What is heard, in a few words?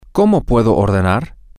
how can I order, spanish, F